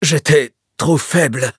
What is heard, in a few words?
defeat, fr; Cookie Run